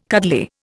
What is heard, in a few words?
"cuddly", Indian